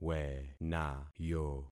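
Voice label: "wenayo", concatenated syllables; Affixing experiment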